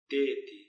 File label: "teeti", Palula